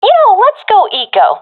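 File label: request eco, professional female; vo; Counter Strike Model Pack; CS:GO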